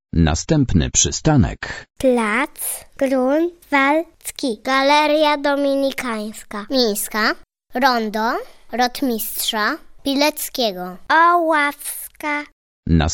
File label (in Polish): dzieci zapowiedzi przystanków mpk